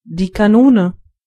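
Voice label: canon, German voices words; gcompris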